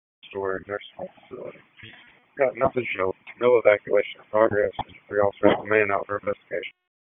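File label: FIRE Operations, p25; FIRE OPS 1; wake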